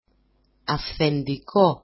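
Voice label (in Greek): "afthendiko", Greek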